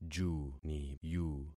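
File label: "juniyu", concatenated syllables; Affixing experiment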